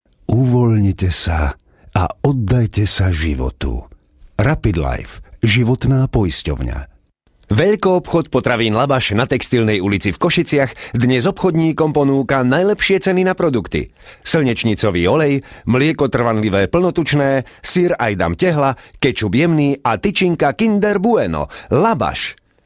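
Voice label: Slovak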